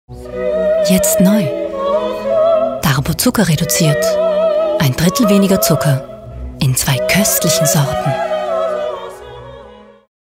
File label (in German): OFF Zuckerreduziert; Darbo